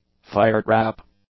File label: "firetrap", English; nouns